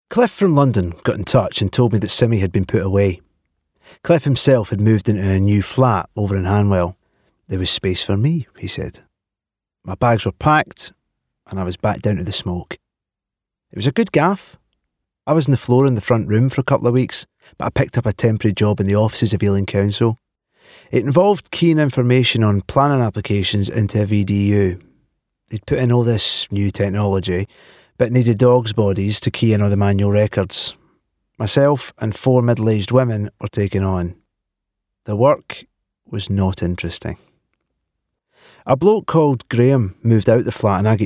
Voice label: AUDIOBOOK; Acid House